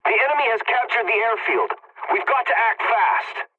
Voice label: pilot capture ground enemy, english; War Thunder